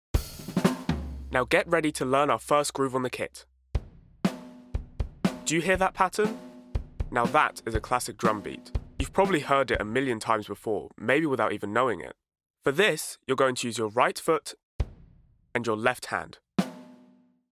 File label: E Learning Explainer